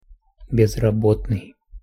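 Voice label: "bezrabotnyj", Russian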